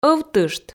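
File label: "ӧвтышт", Komi, female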